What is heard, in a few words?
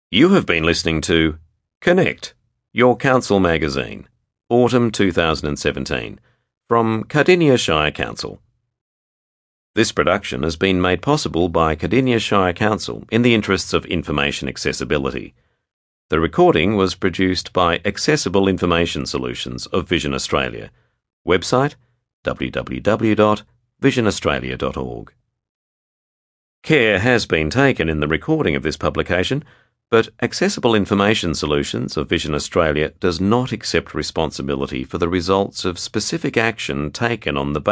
closing announcement; connect autumn 2017